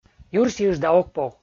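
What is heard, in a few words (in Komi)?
"юрси ыджда ог пов" Komi, male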